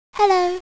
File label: Message, Cantonese girl; ICQ